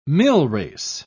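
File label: "millrace", British English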